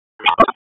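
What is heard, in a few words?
Raleigh Police North District Tactical, p25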